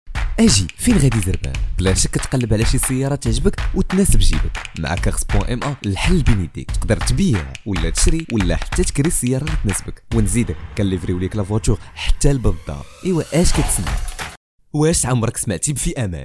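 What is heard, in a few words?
Arabic, male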